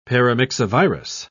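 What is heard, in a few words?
"paramyxovirus", British English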